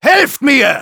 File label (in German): Engineer help me, de